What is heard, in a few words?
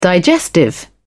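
"digestive", en, British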